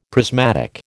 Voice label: "prismatic", American

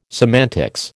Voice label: "semantics", American

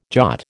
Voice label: "jot", American

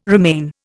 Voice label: "remain", Indian

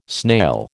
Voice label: "snail", American

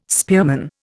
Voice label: "spearman", Indian

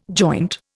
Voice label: "joint", Indian

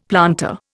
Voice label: "planter", Indian; Dictionary

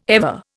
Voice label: "ever", Indian

